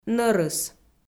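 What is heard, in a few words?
"нӧрыс", Komi, female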